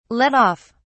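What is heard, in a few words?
"let off", British English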